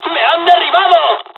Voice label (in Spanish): dialogs spanish; usa; War Thunder